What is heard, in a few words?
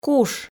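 "куш", Komi, female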